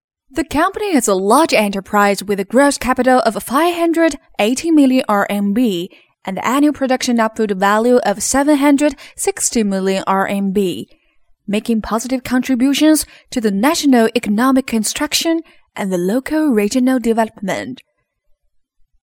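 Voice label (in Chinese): 女英大音-英语样音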